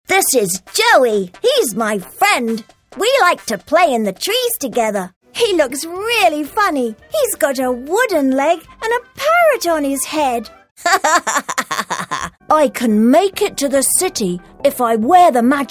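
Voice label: British Toys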